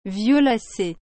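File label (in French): "violacé", French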